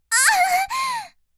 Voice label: h voice; おっぱい図鑑